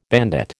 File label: "bandit", American